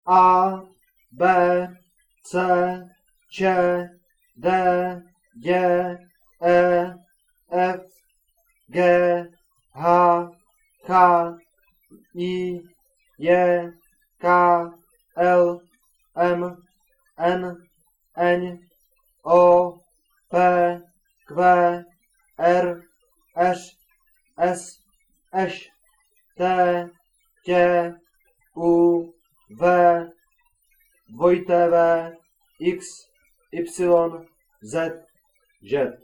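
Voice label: alphabet Czech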